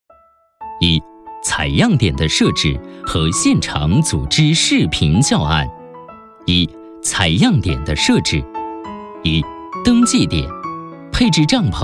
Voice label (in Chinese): 男 专题介绍 核酸采样